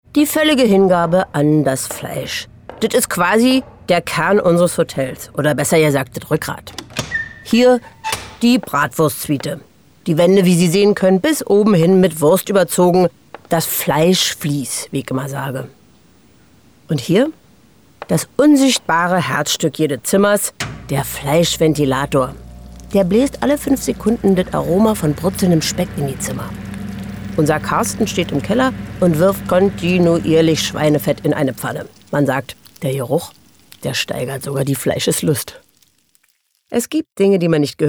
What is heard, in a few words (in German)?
akzent Berliner